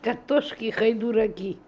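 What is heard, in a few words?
"Katoshkihydooraki", pronunciations; Fourth Way; Beelzebub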